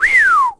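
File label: Vox Skill, voices heroes English; Mitra; kings raid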